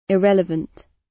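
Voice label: "irrelevant", British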